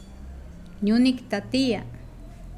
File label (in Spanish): Nawat palabras quemar; pipil